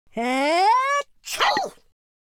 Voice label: idle, Scotsman; speech

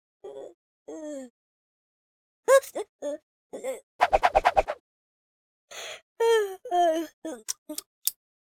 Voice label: idle, speech, Hippy

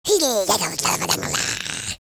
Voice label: angry, speech

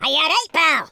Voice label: hello, Scotsman; speech

